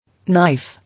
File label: "Knife", English